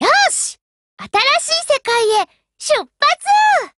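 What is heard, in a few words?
OPERATION BEGIN Japanese; GF Alarm; UMP9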